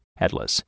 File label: "atlas", e-angielski